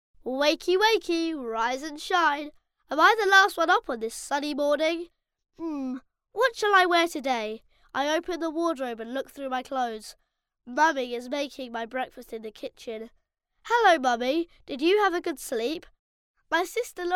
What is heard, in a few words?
boy british voice over character; english british